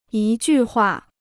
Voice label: "一句话", Chinese

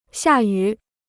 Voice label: "下雨", Chinese

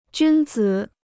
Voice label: "君子", Chinese

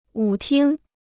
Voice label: "舞厅", Chinese

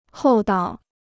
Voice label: "厚道", Chinese

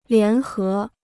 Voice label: "联合", Chinese

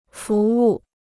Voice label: "服务", Chinese

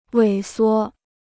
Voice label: "萎缩", Chinese

